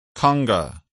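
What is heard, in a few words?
"conga", English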